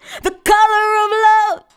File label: COLOR OF, FEMALE VOICE; Best Service; Hallelujah